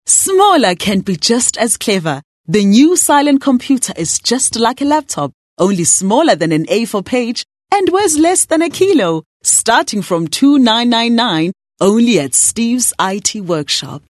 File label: English Bright